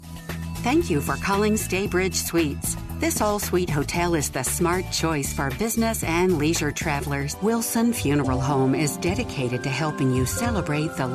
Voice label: On Hold